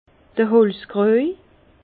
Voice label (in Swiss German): "holzkruej", Bas Rhin; vin; broc en bois